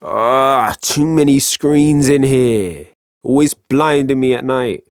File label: Ambient Barks, en; Warframe; Mall Romance; Quincy